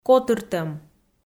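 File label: "котыртӧм", Komi, female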